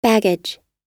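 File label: "baggage", American English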